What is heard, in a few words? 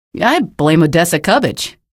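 citizen remarks, female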